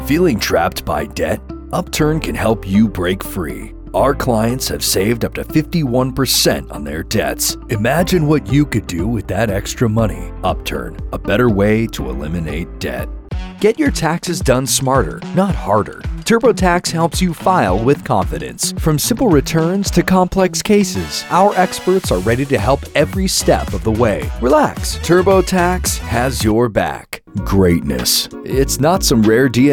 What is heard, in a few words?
Commercial, en-AU